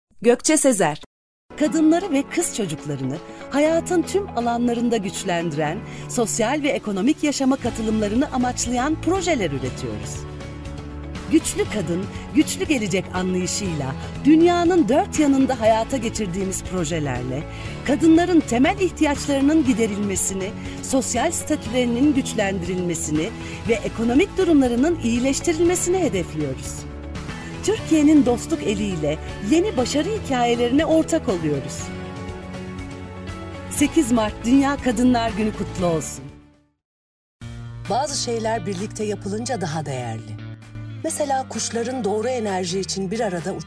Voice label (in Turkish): turkce kadin